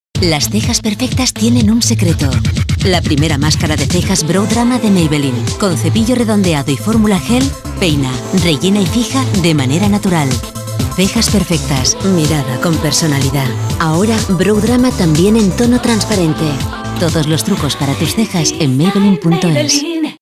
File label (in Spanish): Femenino